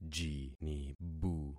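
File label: "jinibu", concatenated syllables; Affixing experiment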